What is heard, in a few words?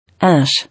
"Ash", English